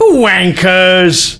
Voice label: Taunt, male; svencoop